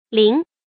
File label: "líng", hanzi